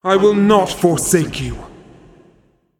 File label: Female Titan Play